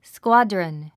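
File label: "squadron", English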